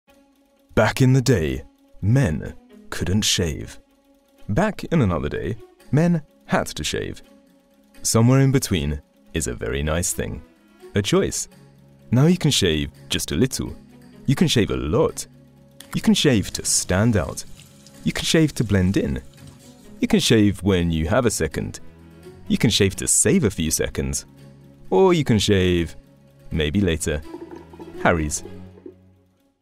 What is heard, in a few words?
Commercial; Harrys